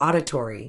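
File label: "auditory", British English; ˈɔː.dɪ.triː; OR-di-tree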